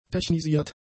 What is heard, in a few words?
"technisiert", German; adjectives